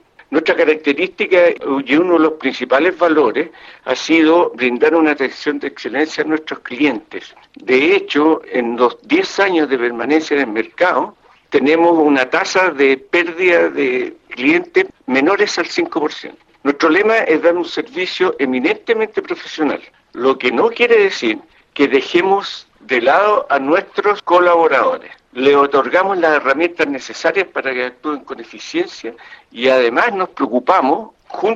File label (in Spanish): cuña